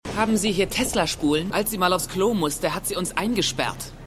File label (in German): Junge; Stimmproben; Tesla; Eureka